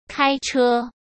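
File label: "开车", Mandarin Chinese; chinese-flashcards; hsk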